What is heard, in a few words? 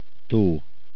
Lake, hungarian